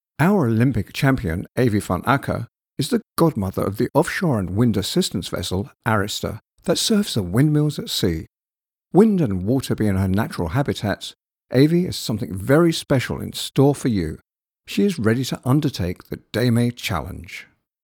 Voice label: corporate voice over